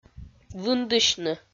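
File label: "вындысьны", Komi, female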